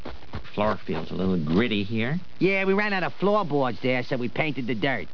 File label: Tirade; gritty floor